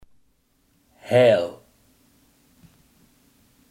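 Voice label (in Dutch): heel, Limburgse gesproken woordenboeken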